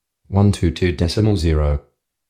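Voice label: "122 000", Australian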